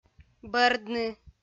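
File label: "бӧрдны", Komi-Zyrian, female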